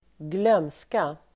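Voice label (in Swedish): "glömska", Swedish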